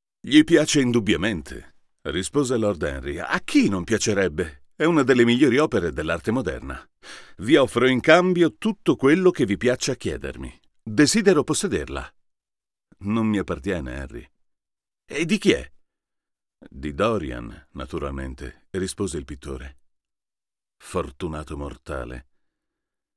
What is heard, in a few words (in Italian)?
U87AI per confronto con RodeNT USB